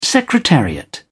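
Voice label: "secretariat", British English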